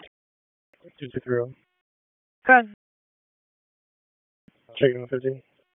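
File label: Police Northeast District Dispatch, p25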